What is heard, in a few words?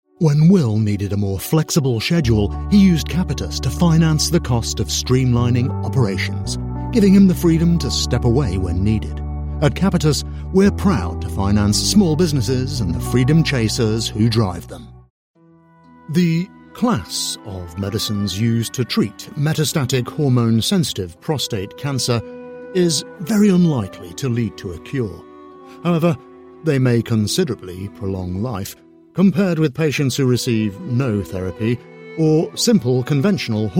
voiceover explainer; British